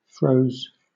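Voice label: "froze", British English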